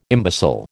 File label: "imbecile", American